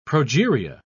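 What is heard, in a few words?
"progeria", British English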